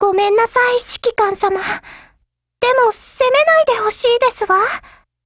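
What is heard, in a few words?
RETREAT, Japanese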